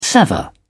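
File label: "sever", British English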